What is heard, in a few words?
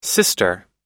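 "sister", English pronunciation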